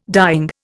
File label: "dying", Indian